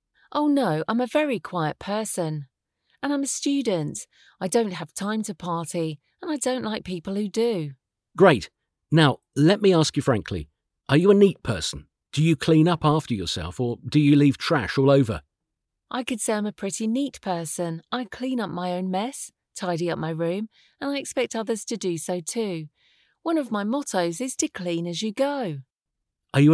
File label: Finding a Flatmate Dialogue; hallás utáni értés